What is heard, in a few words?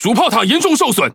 Speech Defective Modules Main Gun Damaged, Languages ZH TW; World Of Warships